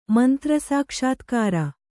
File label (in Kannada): "ಮಂತ್ರಸಾಕ್ಷಾತ್ಕಾರ", Kannada